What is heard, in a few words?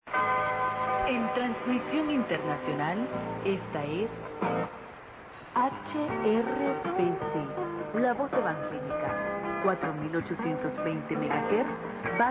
4820, Honduras; HRVC; LV Evangelica